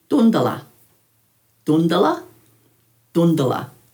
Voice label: "dhundala", Dhurga